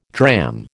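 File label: "dram", American English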